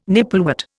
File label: "nipplewort", Indian